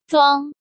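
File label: "Zhuāng", Chinese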